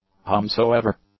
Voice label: "whomsoever", English

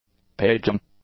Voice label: "paging", English; nouns

